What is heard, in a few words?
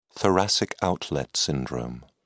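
"thoracic outlet syndrome", British English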